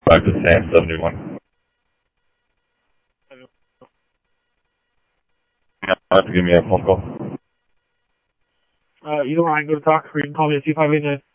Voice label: Mesa Simulcast MESA PD Mesa Police Dep, scanner audio; MESA PD Superstn